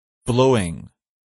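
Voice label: "blowing", English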